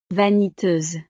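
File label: "vaniteuse", French; estados animo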